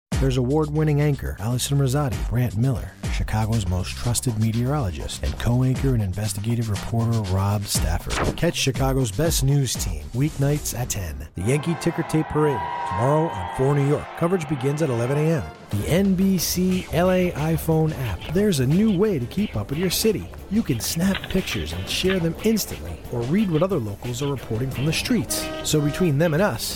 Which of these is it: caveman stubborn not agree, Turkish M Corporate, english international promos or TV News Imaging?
english international promos